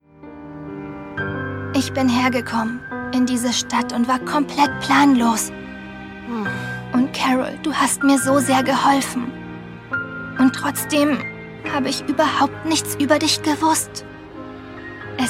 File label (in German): Synchron, frauen; Carole und Tuesday